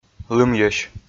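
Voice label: "лымйӧсь", Komi, male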